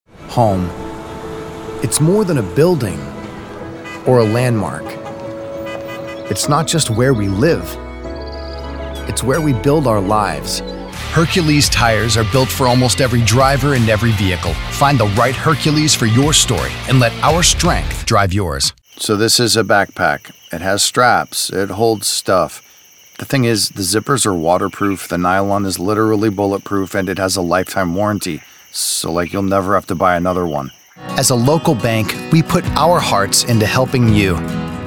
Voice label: Commercial, Male Voice Actors - Commercial Voice Over